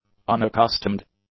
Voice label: "unaccustomed", English; adjectives

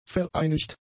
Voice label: "vereinigt", German; adjectives

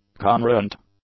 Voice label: "congruent", English; adjectives

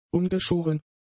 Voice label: "ungeschoren", German; adjectives

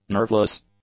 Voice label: "nerveless", english; adjectives

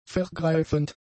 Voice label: "vergreifend", German; adjectives

